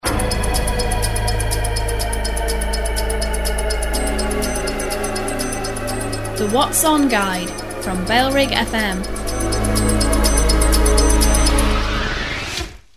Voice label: female, sweep bed; what's on guide from bfm; bailrigg fm; bfm jingles ads RSL 1994